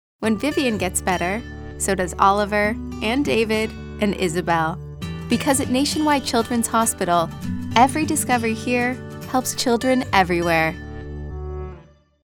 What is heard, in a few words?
Warm Caring; Nationwide